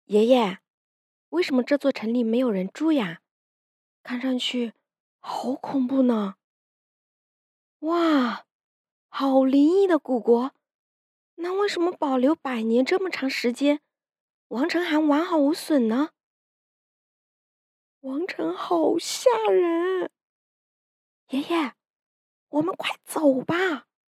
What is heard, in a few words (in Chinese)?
女孩子对话配音